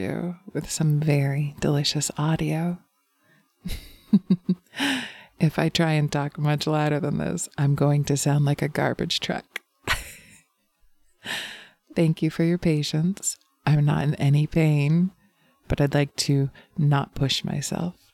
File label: Losing my voice apology